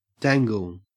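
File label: "dangle", Australian English; pronunciations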